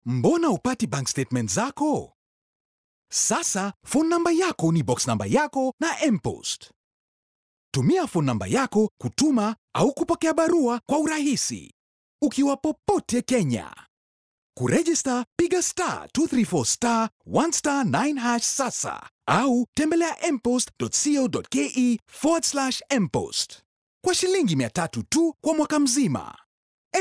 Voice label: Narration